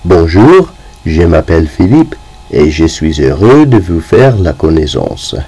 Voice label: French